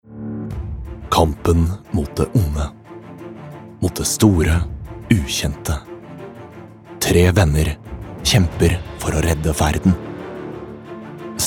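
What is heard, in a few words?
Norwegian movie trailer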